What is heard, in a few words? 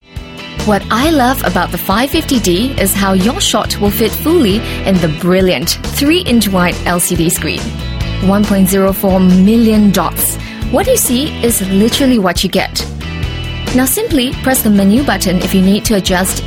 Asian English Montage